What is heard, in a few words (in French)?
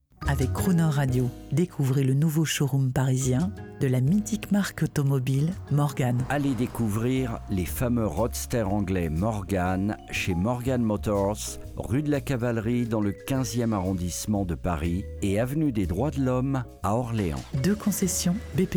PUBLICITE; BPM; MORGAN MOTORS